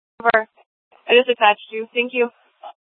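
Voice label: Scottsdale Police South Dispatch; Scttsdle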